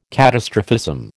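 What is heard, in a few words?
"catastrophism", American English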